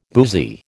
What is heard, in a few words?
"boozy", American